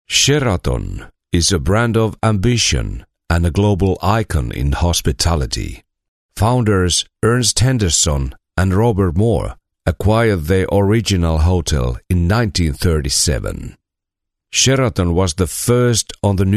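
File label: Finnish, male, English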